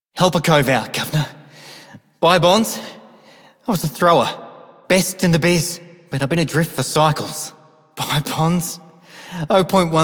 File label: Male Repo, Male Two, en; Dialog; Warframe; Solaris Venus